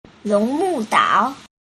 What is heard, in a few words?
"longmudao", Mandarin